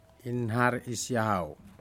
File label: fish pink Whipray, Aneityum